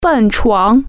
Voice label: "trampoline", Chinese